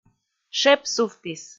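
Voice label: "шеп сувтіс", Komi, female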